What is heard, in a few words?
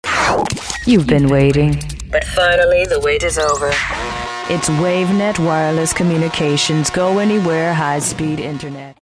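anti announcer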